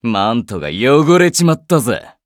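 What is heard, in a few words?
victory, Japanese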